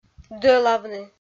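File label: "дӧлавны", Komi, female; kpv audio dict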